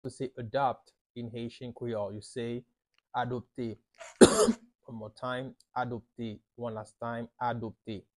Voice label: "Adopte" Haitian Creole pronunciation by a native Haitian Creole teacher; How to say Adopt in Haitian Creole